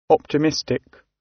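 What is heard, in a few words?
"optimistic", British English; Life, leisure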